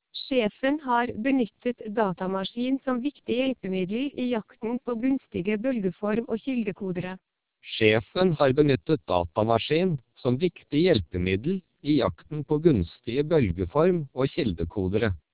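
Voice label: twelp 1600 Norwegian speech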